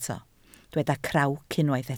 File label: "crawc", Welsh